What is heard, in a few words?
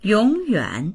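"yong3yuan3", Mandarin Chinese; 永远; いつまでも、永遠に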